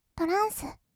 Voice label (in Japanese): トランス, kawaii voice; 1200 kawaii voice!!; 音楽ジャンル